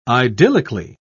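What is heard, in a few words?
"idyllically", English, British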